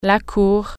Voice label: garden, french